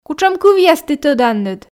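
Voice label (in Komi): кутшӧм кывъяс ті тӧданныд, Komi, female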